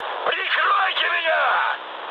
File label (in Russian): aircraft damaged, mood high, speech ru